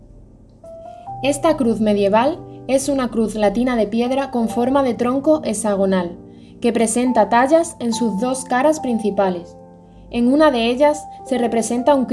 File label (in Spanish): audio espanol con fondo; CRUCERO PIEDRA GORDA